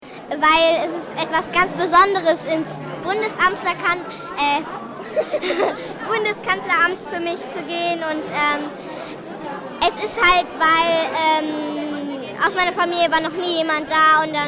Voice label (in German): Umfrage aufgeregt am Abend vorher; Kanzlerin